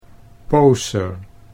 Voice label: "poser", Dutch